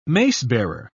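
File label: "macebearer", British English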